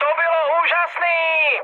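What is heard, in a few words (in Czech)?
Czech trick, mood high; speech; War Thunder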